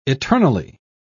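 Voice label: "eternally", British English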